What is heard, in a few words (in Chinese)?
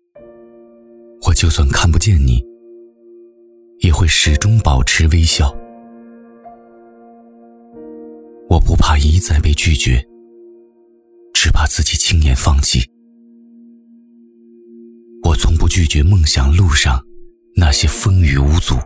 男 宣传片 讲述; 旅游; 途牛旅游2020宣传片